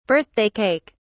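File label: "birthday cake", British English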